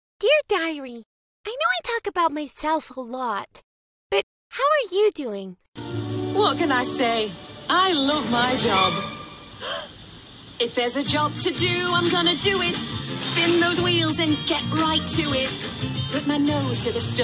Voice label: cartoon, voiceover; Animation

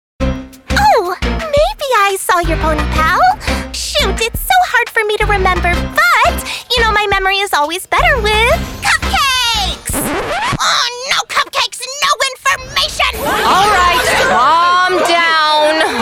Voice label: animation, voiceover

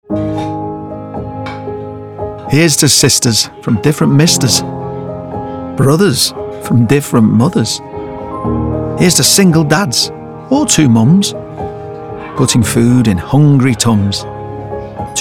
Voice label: voiceover commercial

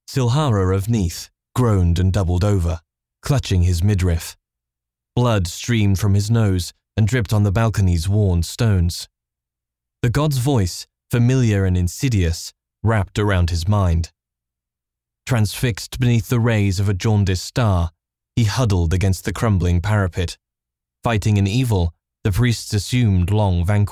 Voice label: audiobooks, voiceover

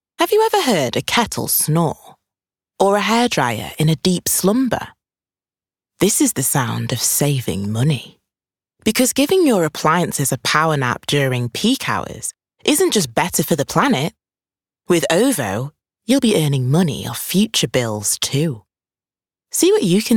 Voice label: voiceover commercial showreel

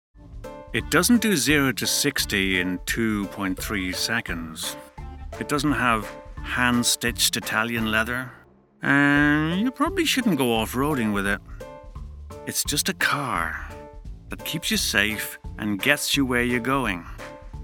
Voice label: voiceover commercial

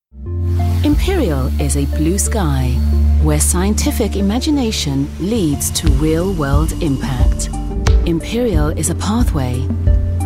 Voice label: narrative reel, voiceover

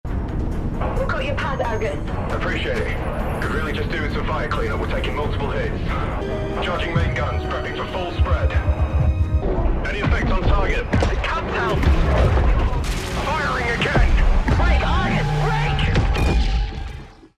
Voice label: voiceover video game

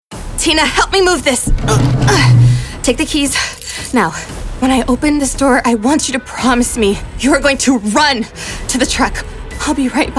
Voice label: interactive, voiceover

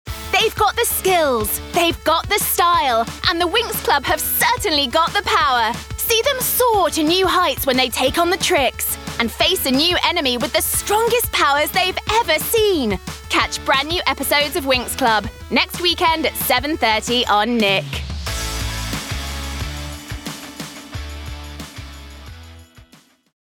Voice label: promo showreel

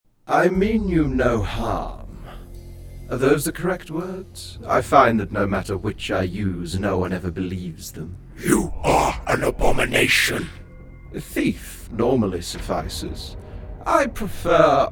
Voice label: voiceover animation showreel

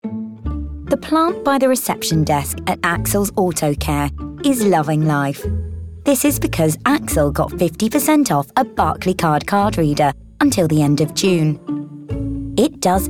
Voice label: Commercial Showreel

